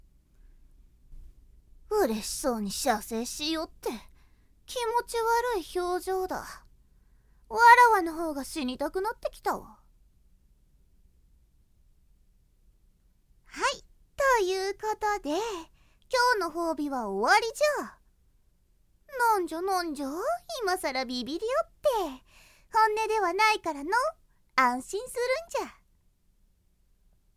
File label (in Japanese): バイノーラル 効果音なし